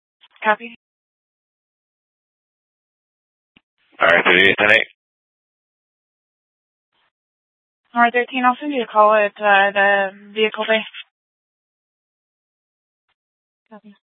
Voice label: Central Dispatch, Mesa PD; TOPAZ Regional Wireless Cooperative (TRWC) - Mesa Simulcast